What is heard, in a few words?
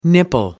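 "nipple", British English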